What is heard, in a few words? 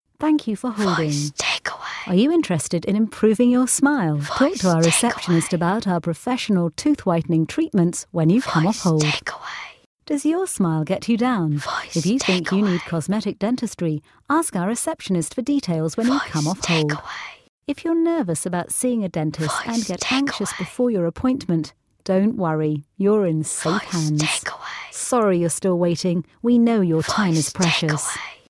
Dentists Rich Female